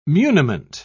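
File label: "muniment", British English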